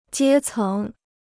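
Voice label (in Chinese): "阶层" Chinese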